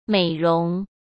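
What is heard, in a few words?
"美容", Mandarin Chinese; chinese-flashcards; hsk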